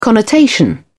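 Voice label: "connotation", British English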